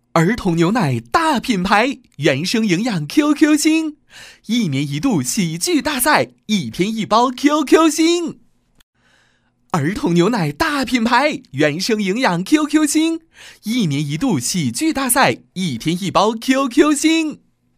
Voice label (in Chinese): 男 品牌广告 年轻活泼; QQ星牛奶; 年轻活力; 年轻时尚